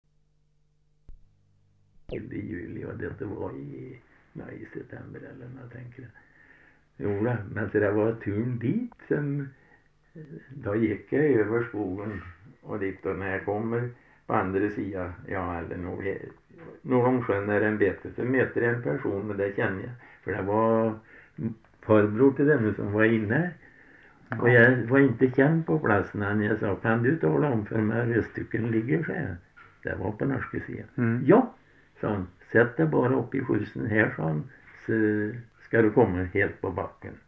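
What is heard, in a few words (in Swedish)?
Östervallskog Värmland, dialekter; Folkminnen; Svenska sagor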